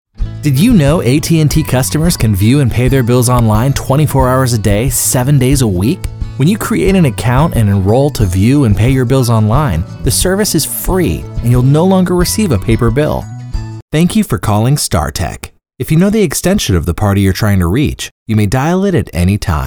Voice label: men telephone; Guy next door, young dad, or older brother.